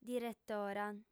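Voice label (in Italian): "diretora", lingua sarda, female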